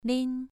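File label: "lin", tone 2, pronunciation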